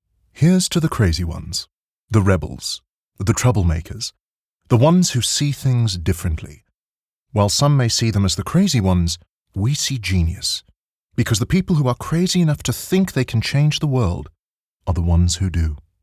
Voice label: English Corporate; OSM Talent